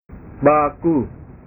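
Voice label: "baku", Indonesian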